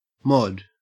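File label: "mod", Australian English; pronunciations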